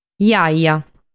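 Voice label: "Jaja", Sardinian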